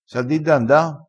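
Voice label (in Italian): "sa di d anda", vocabolario dialettale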